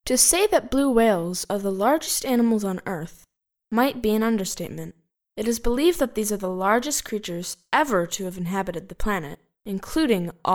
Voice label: Amerikan Ingilizcesi Kiz Cocuk; seslendirme

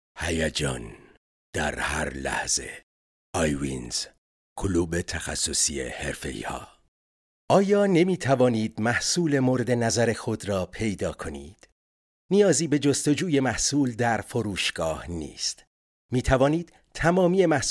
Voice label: Farsca Erkek Iran; seslendirme

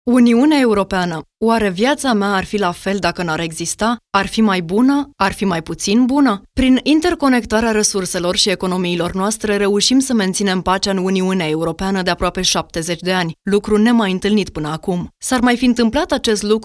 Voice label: Romence Kadin, seslendirme; kadin sesler

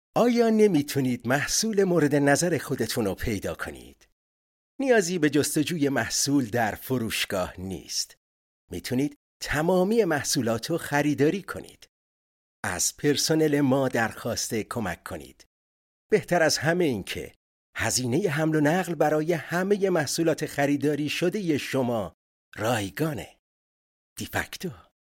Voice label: farsca iran Erkek; seslendirme

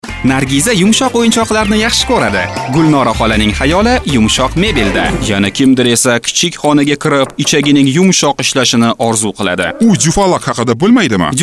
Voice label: Ozbekce Erkek; ozbekce seslendirme; erkek sesler

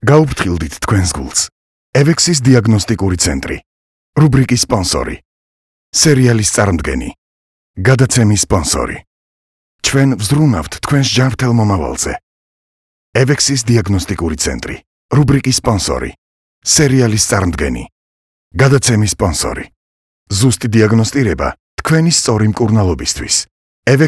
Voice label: Gurcuce Erkek

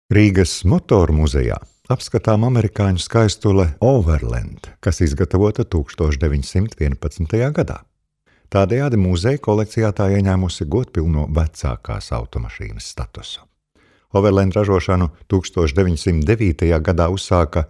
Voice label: Letonca Erkek, seslendirme; erkek sesler

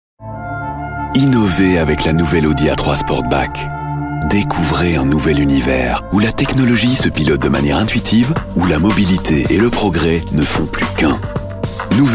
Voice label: Fransizca Erkek, seslendirme

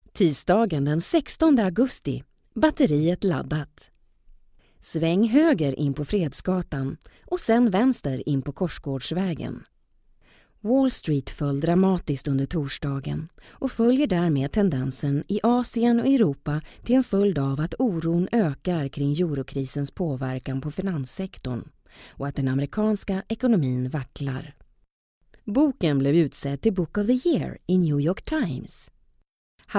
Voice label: Isvecce Kadin, seslendirme

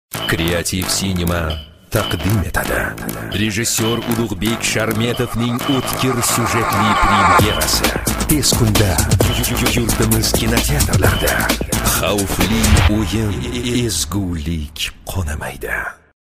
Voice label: Ozbekce Erkek, seslendirme; erkek sesler

